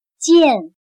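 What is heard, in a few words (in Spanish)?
"渐", chino mandarin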